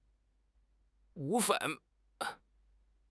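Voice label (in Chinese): "骨干", Chinese; HSK6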